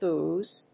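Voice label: "Boos", English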